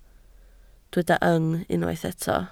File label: "yng", Welsh